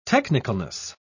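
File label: "technicalness", British English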